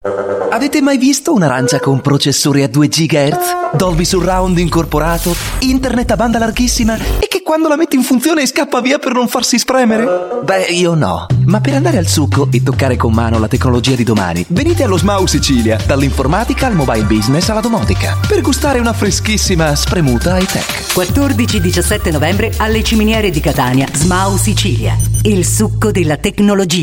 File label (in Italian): Spot Italian; Male